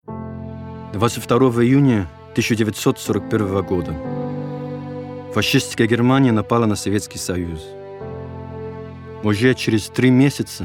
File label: Russian